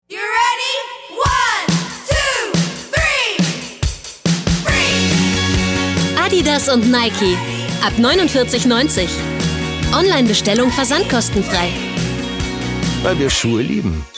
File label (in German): Fremdtake Freundlich, voices